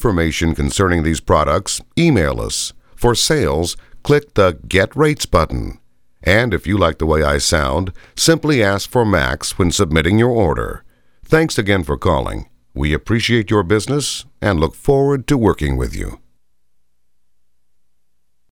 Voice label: Voice Samples, onhold